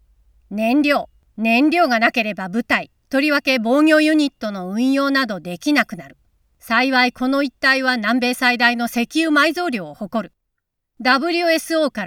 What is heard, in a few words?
Video Game Character